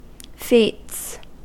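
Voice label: "feats" English, US; pronunciations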